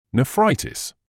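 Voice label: "nephritis", British English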